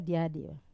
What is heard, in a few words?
"diadiva", very, wamesa